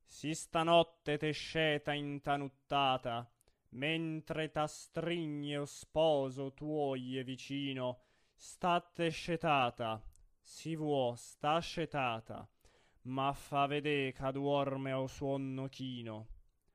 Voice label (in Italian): espressivo